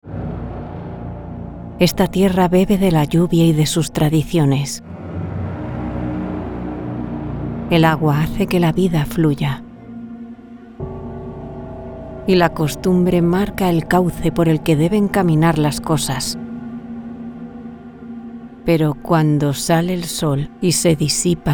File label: Spanish movie trailers